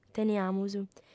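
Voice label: "teniamus", Sardinian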